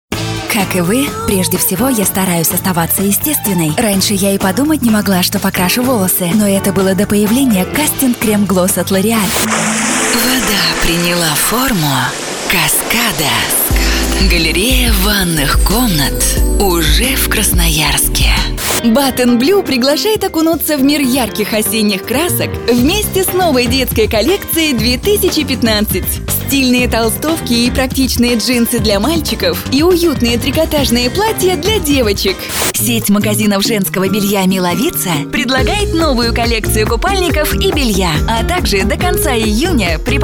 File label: RUS